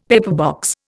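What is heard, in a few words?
"pepperbox", Indian English; Dictionary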